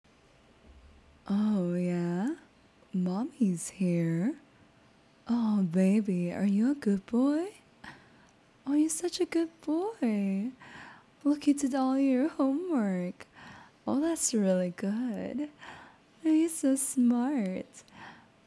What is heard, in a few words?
husher mommy voice